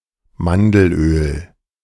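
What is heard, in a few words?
"Mandelöl", German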